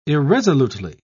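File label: "irresolutely", British English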